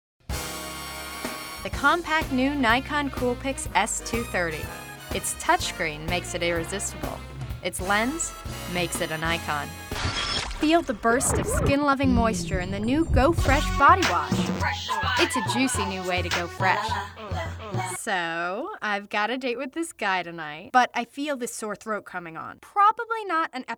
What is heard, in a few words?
comm vo